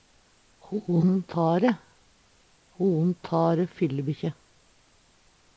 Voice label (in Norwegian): "ho`en ta`re", Numedalsmål; bannskap; Ho`en ta`re, fillebikkje.